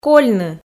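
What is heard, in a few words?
"кольны", Komi, female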